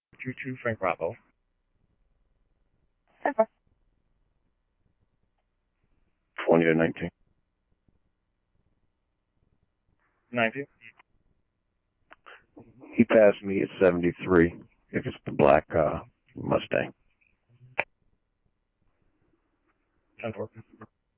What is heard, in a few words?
scanner, Scottsdale Police